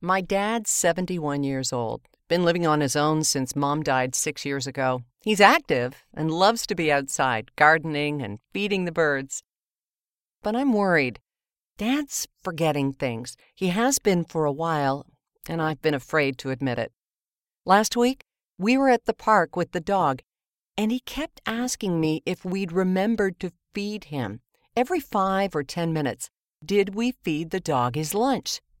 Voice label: conversational